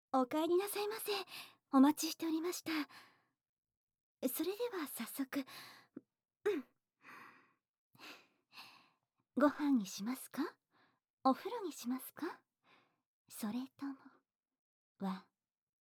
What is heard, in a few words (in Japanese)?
新妻風「おかえりなさいませ」; 日常音声